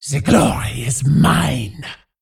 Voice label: Female Vrykul Attack

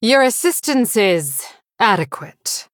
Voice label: Female Blood Elf Thanks

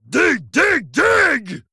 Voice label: Male Trogg Attack

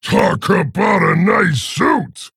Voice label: Male Orc Play

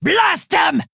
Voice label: Female Orc Attack

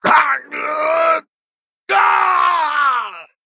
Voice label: Male Venthyr Death

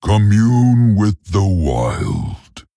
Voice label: Male Tauren TRIGGER LUNAR VISIONS

